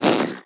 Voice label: Zombie